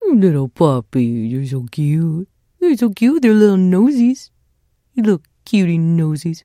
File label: trader monolog; 商人杰恩（七日杀）